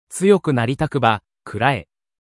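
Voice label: anime, Japanese, Hilarious Anime Quotes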